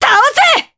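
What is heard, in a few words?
Vox Skill, voices, Japanese; Naila; kings raid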